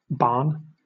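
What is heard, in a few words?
"barn", British English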